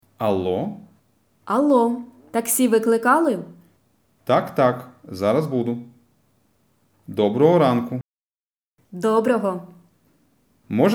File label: Dialogue Slow